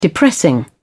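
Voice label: "depressing", English, British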